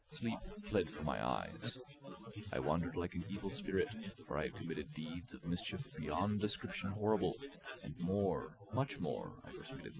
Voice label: male inst src; SiSEC08; SiSEC underdetermined